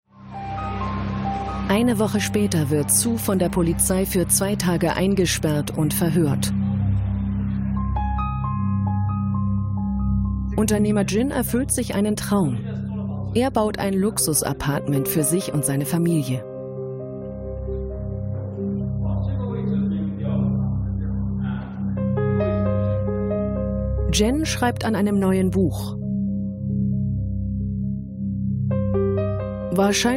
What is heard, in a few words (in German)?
Doku; ARTE; China Reich ohne Mitte